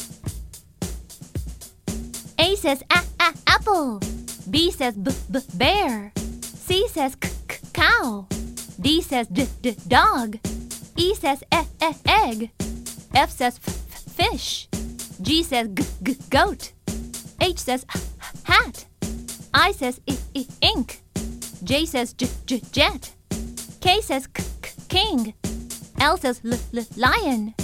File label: Alphabet Jingle Point And Say; Unit 1